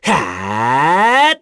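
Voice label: Vox Casting, English; Esker; kingsraid; voices heroes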